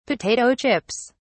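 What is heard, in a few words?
"potato chips", British English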